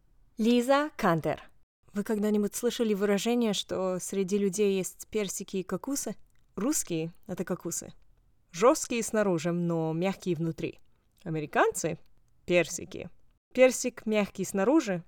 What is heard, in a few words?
VO reel russian